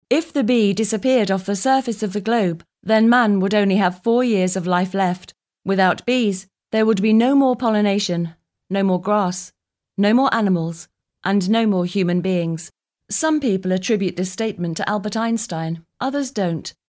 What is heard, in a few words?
nature doc style